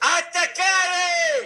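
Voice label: "attaccare", Italian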